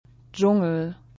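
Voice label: "Dschungel", German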